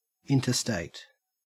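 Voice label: "interstate", Australian English; pronunciations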